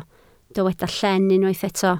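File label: "llen" Welsh, spoken word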